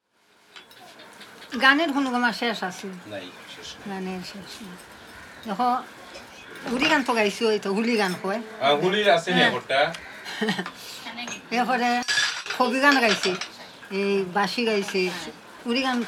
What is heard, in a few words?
Conversation; Travelling Archive Records